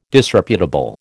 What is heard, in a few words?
"Disreputable", American